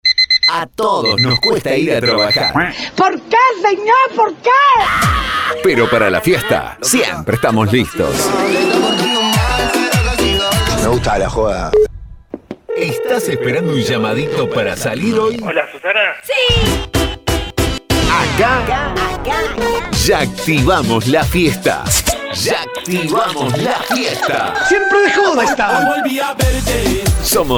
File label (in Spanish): PACK TROPICAL, Spots Tropical cumbia, Voz masculina; Para vestir tu radio o programa; 30 spots personalizables